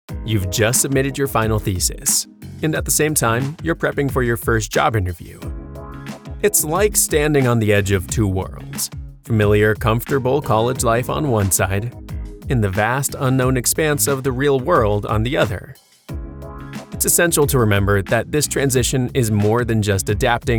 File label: E Learning, Coffehouse Casual Voiceover; Coffeehouse Casual Voiceover